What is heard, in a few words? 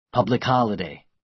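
"public holiday", American English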